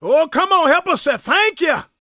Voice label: preachin, gospel preacher